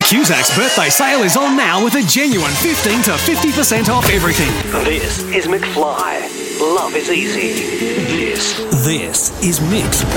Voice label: MVO